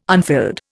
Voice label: "unfilled", Indian